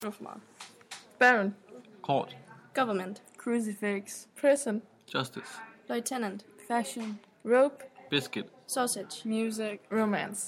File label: pronounce english words